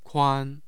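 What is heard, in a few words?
"kuan", Mandarin Chinese, tone 1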